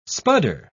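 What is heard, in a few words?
"spudder", English, British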